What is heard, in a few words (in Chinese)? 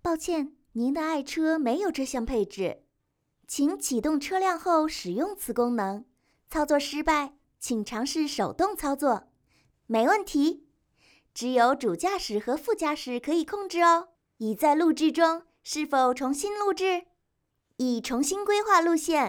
汽车导航女声